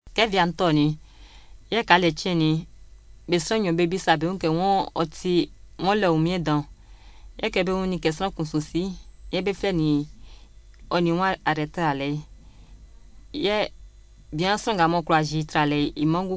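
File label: Baoulé, Ivory Coast, female, Bouaké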